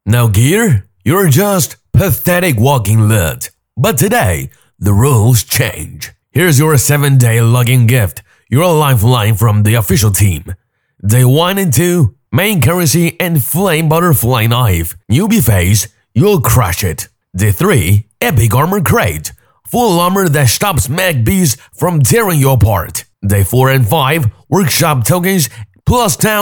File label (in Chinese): 男 英文 信息流